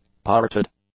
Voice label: "parted", English; adjectives

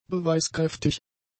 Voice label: "beweiskräftig", German; adjectives

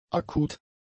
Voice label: "akut", german; adjectives

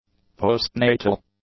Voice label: "postnatal", english; adjectives

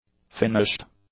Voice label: "finished", English; adjectives

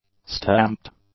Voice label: "stamped", English; adjectives

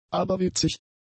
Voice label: "aberwitzig", German; adjectives

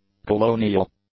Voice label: "colonial", English; adjectives

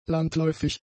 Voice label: "landläufig", German; adjectives

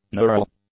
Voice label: "neural", english; adjectives

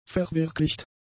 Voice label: "verwirklicht", German; adjectives

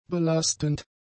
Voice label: "belastend", German; adjectives

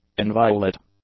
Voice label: "inviolate", english; adjectives

